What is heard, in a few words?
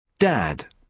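"dad", British English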